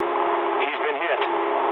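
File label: aircraft destroyed mood low, speech English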